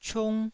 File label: "ciung1", taiwanese